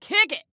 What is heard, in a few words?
Compliment "Awesome", Female